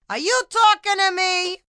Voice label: Talkin To Me, Attitude, female: 'Are you talking to me' (Bronx accent), (human voice speaking); VoiceBox